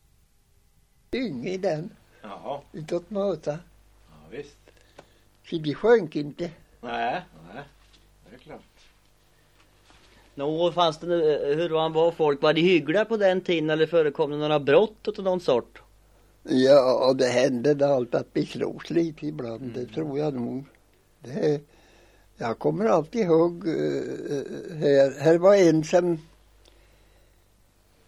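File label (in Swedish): Kisa Östergötland, Svenska sagor, dialekter; Folkminnen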